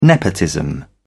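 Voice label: "nepotism", British English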